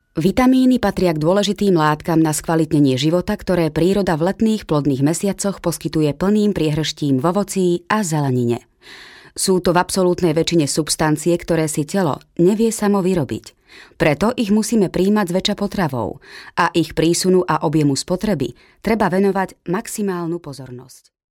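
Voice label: Slovak, female, explainer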